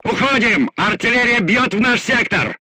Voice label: voice message art shooting warning, crew tanks russian